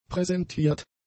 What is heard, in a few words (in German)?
"präsentiert", German; adjectives